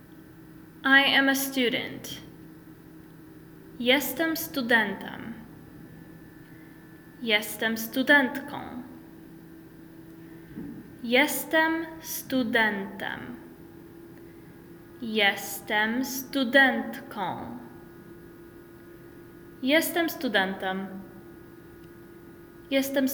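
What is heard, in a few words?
I am a student, Polish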